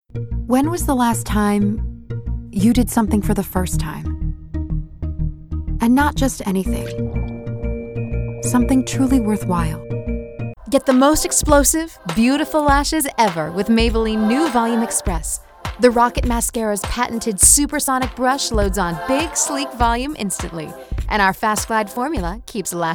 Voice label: voiceover promos network cable; women; new york